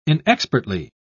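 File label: "inexpertly", British English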